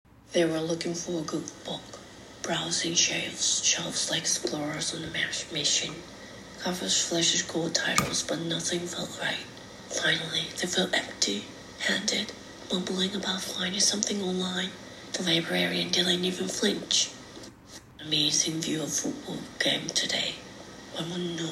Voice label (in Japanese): ソフトボイス